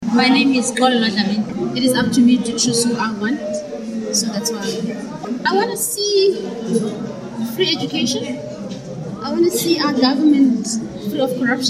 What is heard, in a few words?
Elections Vox Pops